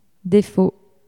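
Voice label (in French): "defaut" French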